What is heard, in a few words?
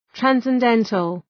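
"transcendental", English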